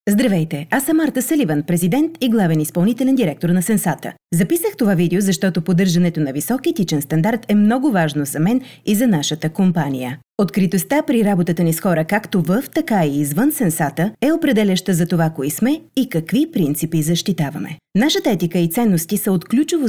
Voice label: Corporate Video